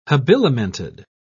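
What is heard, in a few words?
"habilimented", British English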